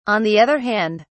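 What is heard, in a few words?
"on the other hand", English, British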